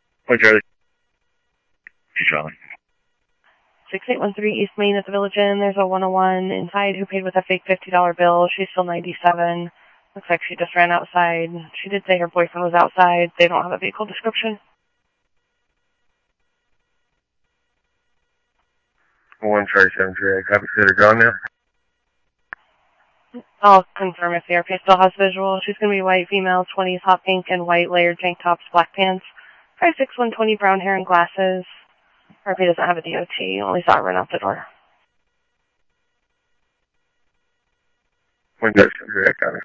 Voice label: Phoenix PD, scanner; PHOENIX PD-200 A; ThompsonPeakHigh